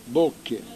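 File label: "bocche", Corsican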